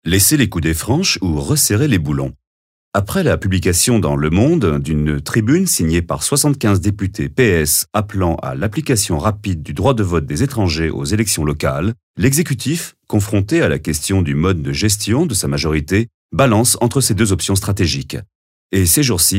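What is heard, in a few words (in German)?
French, Kommentar Neutral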